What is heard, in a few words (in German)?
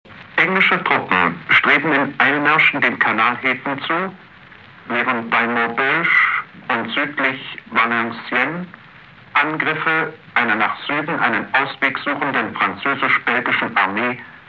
Deutscher Rundfunk; OKW; Luettich gefallen und Rueckzug britischer Truppen